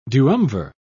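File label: "duumvir", British English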